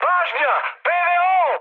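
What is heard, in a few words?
Serbian damaged aa mood high, speech; War Thunder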